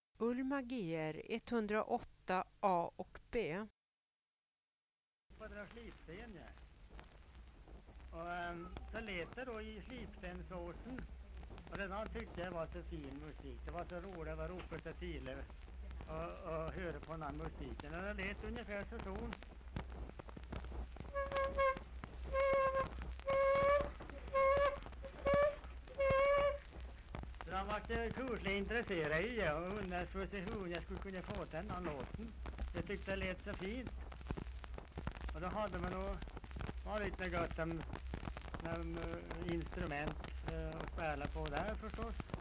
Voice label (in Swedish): Hede, dialekter, sagor; Folkminnen